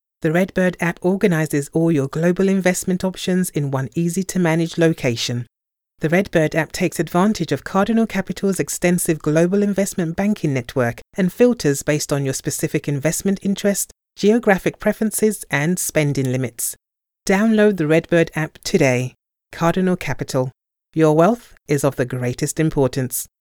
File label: English United Kingdom